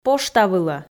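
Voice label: "пошта вылӧ", Komi, female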